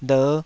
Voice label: "ler5", Taiwanese syllable